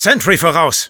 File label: Sniper sentry ahead, de; "Sentry voraus!"; Sprachbefehle vom Sniper